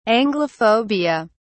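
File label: "anglophobia", British English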